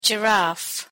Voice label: "giraffe", transparent stressed syllable